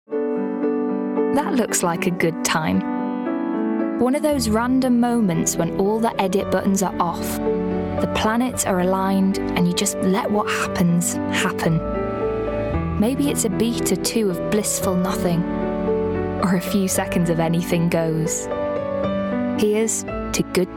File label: Commerical